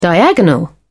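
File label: "diagonal", British English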